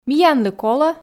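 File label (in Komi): "миянлы колӧ", Komi, female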